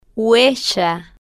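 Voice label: "huella", spanish, slow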